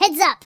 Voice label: "what the", speech; Biggles